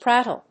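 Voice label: "prattle", English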